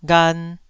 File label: "ghan2", Taiwanese